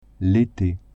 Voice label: "été" French

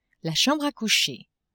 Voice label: "chambre a coucher", French; maison

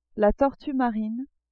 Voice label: "tortue marine", French

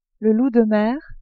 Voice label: "loup de mer", french

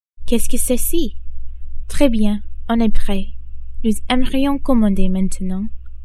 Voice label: au restaurant, French

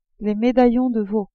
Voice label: "medaillons de veau", french

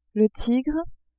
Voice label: "tigre", French

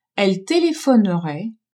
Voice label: "elle telephonerait", french

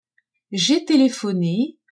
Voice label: "j'ai telephone", french; telephoner

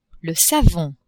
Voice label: "savon", French; maison

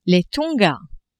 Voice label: "Tonga", French

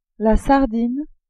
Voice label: "sardine", french

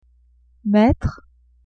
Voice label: "mettre", French; vetements

